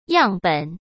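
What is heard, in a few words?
"样本" Mandarin Chinese; chinese flashcards; hsk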